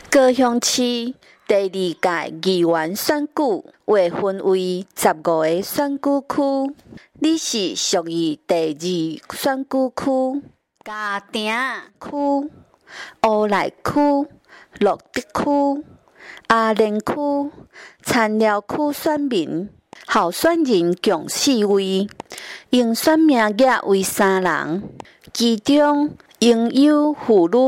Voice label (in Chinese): 台語, 前言, 有聲選舉公報; 第二選區